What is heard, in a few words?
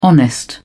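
"honest", British English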